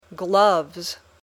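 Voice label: "gloves", English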